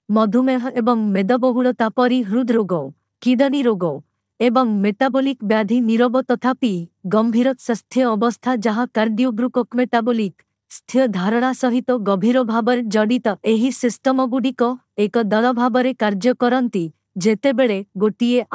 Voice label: Female Odia

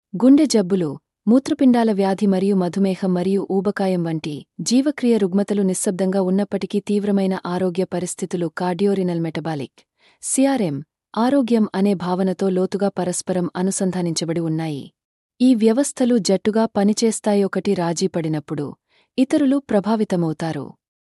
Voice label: video Female Telugu

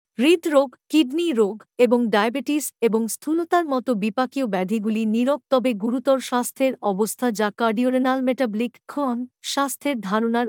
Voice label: video Female Bengali

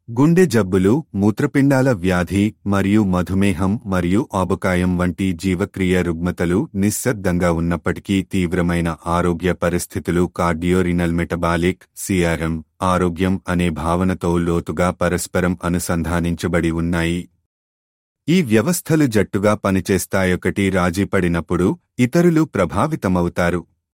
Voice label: Male Telugu

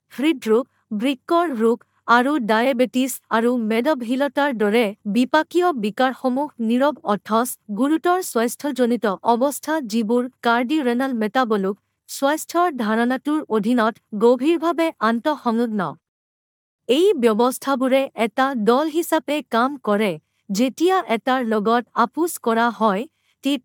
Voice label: Female Assamese

